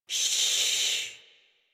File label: Horse Calm Adult; Warframe; Duviri